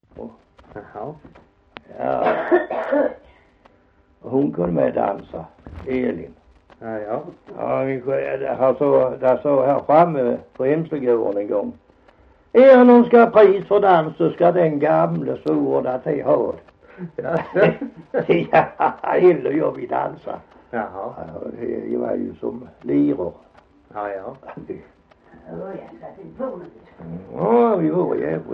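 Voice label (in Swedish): Hasslöv Halland, svenska sagor dialekter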